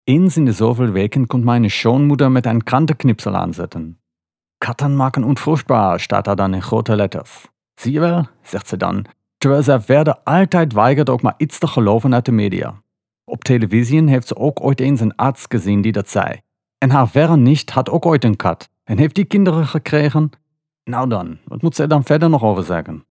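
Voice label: Dutch Duits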